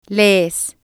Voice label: "lees", spanish